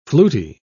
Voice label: "fluty", pronounce